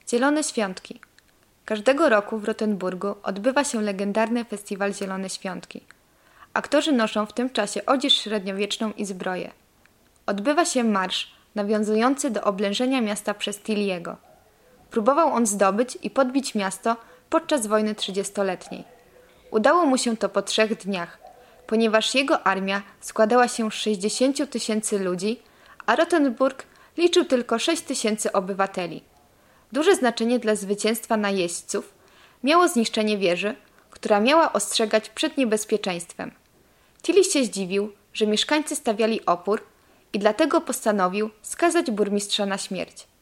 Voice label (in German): polnisch; Pfingstfestspiele